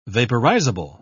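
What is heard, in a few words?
"vaporizable", British English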